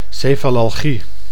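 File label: "cefalalgie", Dutch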